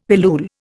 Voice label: "pilule", Indian; Dictionary